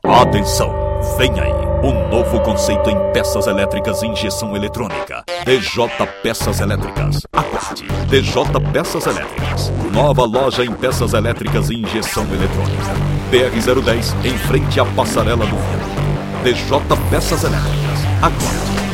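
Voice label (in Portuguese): locução; inpacto expectativa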